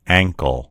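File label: "ankle", American English; gcompris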